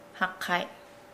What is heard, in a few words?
Nukuoro; earring